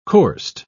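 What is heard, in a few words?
"coursed", British English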